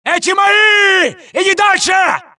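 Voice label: suppress, dialogue, Allies; mohaa; Mission 3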